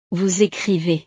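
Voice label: "vous ecrivez", French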